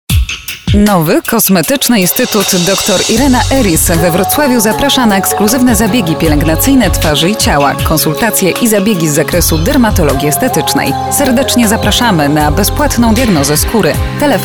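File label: Polish, female, commercial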